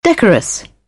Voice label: "decorous", British; English

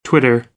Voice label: "twitter", American, English

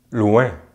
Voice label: "loin", French; Vocales nasales en francés; easypronunciation

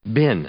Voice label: "bin", English; noun; Ingles; i-ɪ

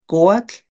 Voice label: víbora koatl snake, Náhuatl

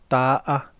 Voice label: "sol", Yaqui; Vocabulary

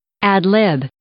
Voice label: "ad-lib", English

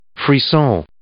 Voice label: "frisson", English

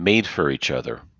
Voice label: "made for each other" idiom

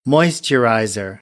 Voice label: "moisturizer", American; ɚ - əɹ

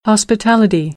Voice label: "hospitality", American English

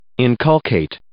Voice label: "inculcate", English

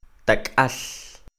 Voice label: "Tak'al", Quiché; parado(a); standing; INALI; UTexas; CHQETA

